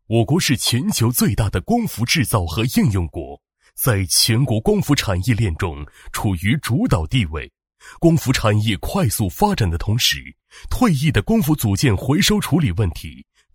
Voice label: 男 工程汇报 稳重厚重; 激情大气 大气浑厚

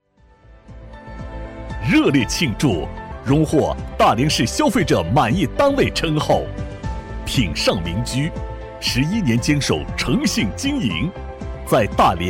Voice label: 男 颁奖配音 大气激情; 硬朗力度 大气激昂; 品尚茗居

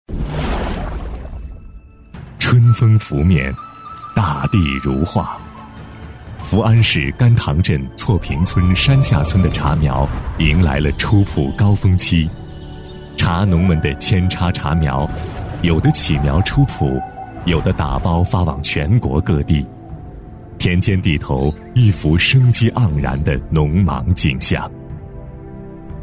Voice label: 男 茶农宣传片 抒情质感; 高端大气; 成熟厚重; 中国茶叶之乡

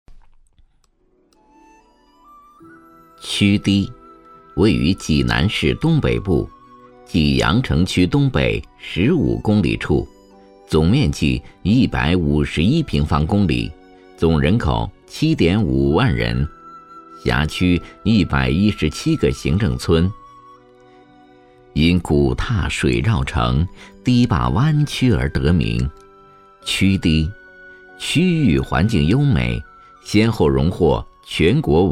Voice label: 男 政府汇报专题; 模仿任志宏

